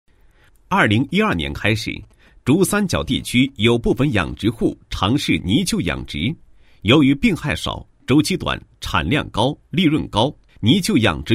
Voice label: 男 高音 广告; 高音经济型; 珠海容川泥鳅养殖户

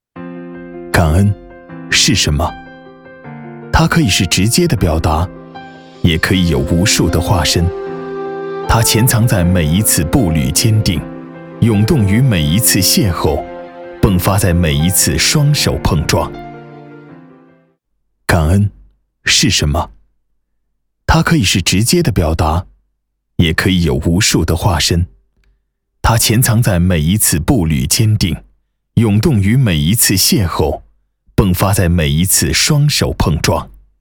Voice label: 男 走心 感恩

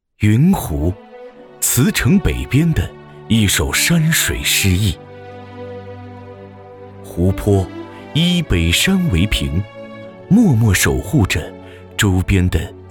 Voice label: 男 纪录片; 云湖

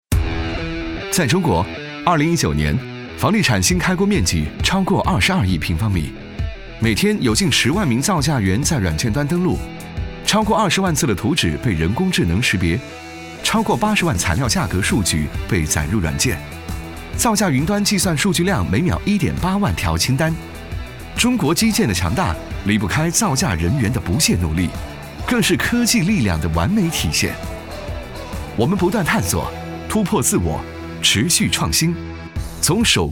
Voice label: 男 专题 科技 讲述; 广联达

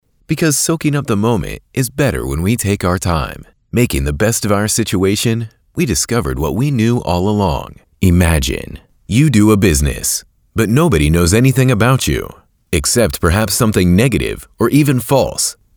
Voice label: 外籍英男-干音-舒缓抒情

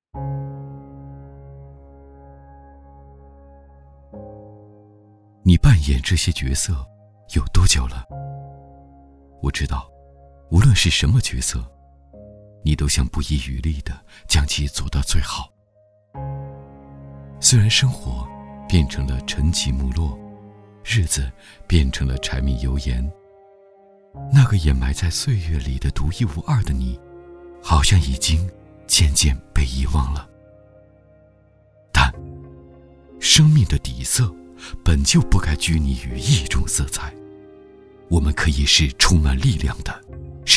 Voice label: 男 纪录片; 人生本就灿烂

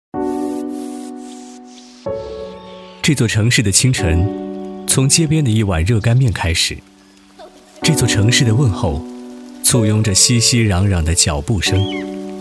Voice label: 男 旁白 独白 城市清晨; 武汉

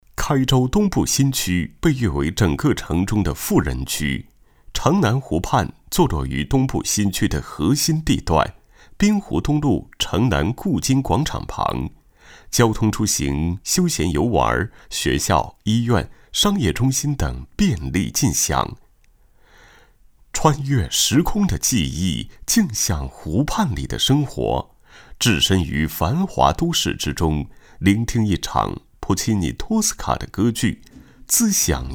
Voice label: 男, 房地产规划讲解; 东部新区

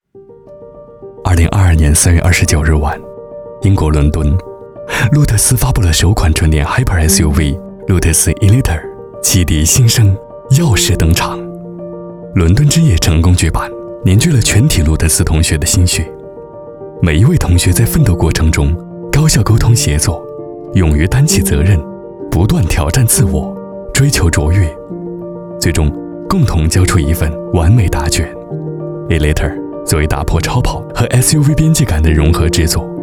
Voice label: 男 科技感 科技感讲解

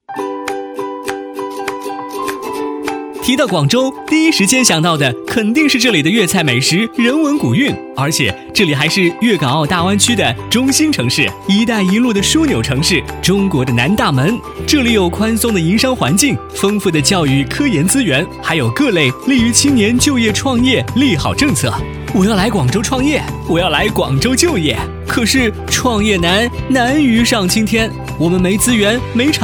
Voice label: 男 飞碟说 港澳青年创新创业服务中心; 广州市

